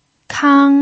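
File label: "kang" first tone, pinyin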